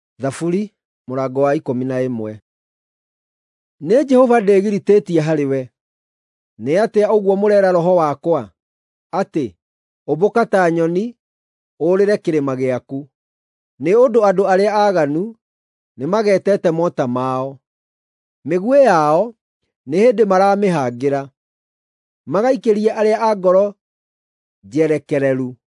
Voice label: psalms, gikuyu drama

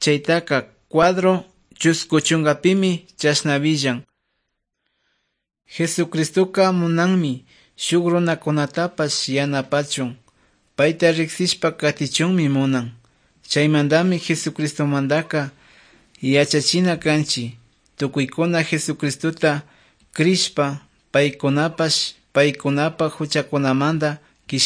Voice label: good news quichua otovalo

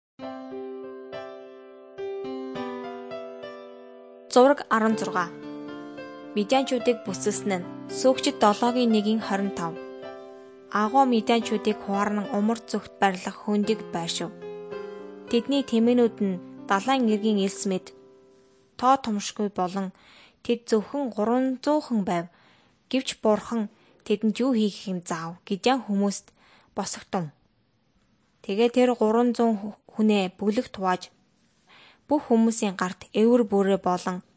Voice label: mongolian

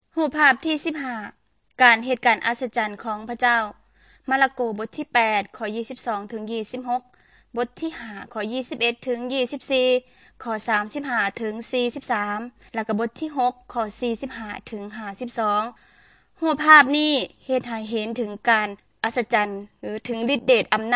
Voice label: good news thai northeastern

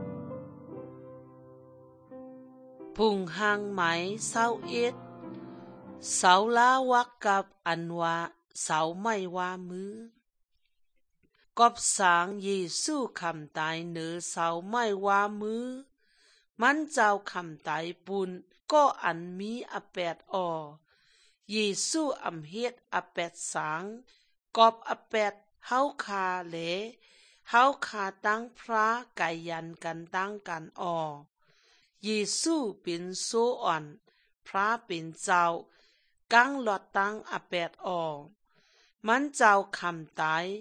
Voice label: good news shan eastern, drama

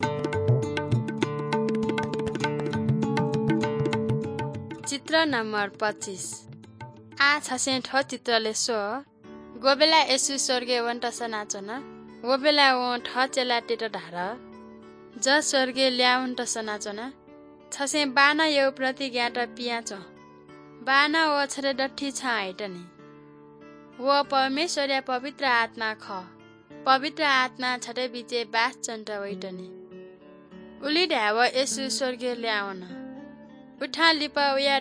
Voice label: good news newari balami

